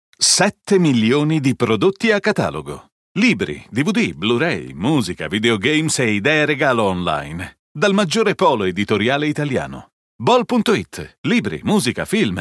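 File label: Italian commercial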